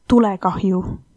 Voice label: fire, estonian

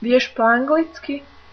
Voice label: do you speak english, slovak; Vieš po anglicky?

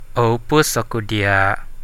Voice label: i love you, Central Dusun

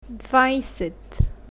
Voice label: "dvajset", bulgarian numbers

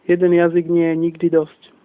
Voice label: one language, slovak

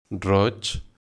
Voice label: "red", Catalan

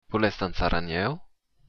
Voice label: dance, occitan

